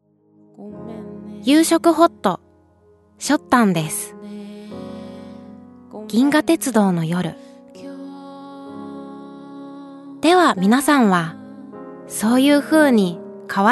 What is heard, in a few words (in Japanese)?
ナレーション